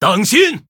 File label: Male Human Attack, zh